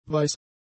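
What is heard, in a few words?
"weiß", German; adjectives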